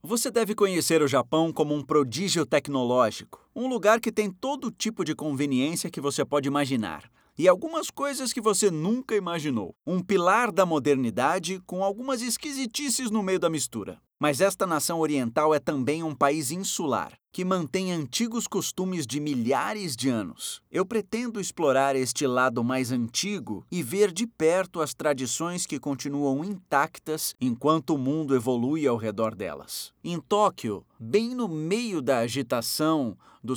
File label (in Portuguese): Português - Brasileiro, Narração, Voz Madura, Masculino; Masculino (51 anos); Vila Franca de Xira, Lisbon - PT